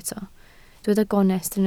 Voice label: "gonest", Welsh